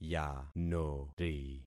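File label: "yanori", concatenated syllables; Affixing experiment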